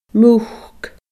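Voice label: "muc", Scottish Gaelic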